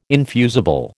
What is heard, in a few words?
"infusible", American